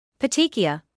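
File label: "petechia", British English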